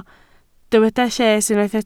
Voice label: "lles", Welsh